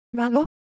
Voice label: Semai Shape; ideophones